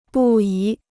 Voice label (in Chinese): "不宜", Chinese